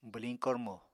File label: "bwliñ kormo", Apatani